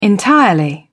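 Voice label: "entirely", English, British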